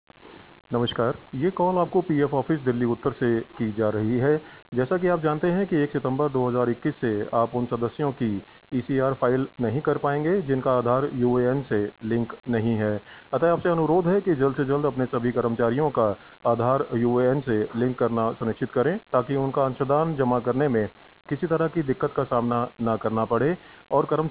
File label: Voice Alerts